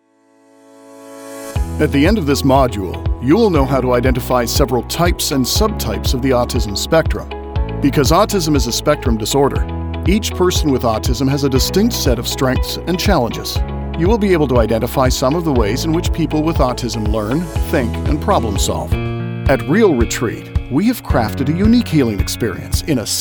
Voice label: E-Learning